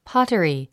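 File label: "pottery", English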